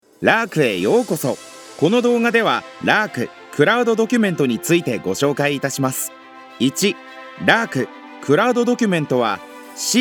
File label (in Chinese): 日语 男声 老师; 日语男声号老师